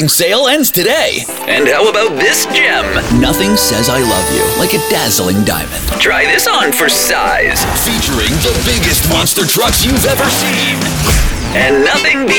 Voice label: Commercial Voice